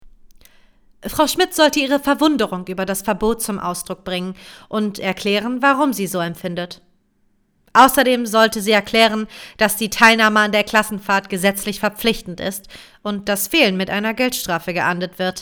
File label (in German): HANDLUNGSOPTION NEUTRAL